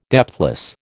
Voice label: "depthless", American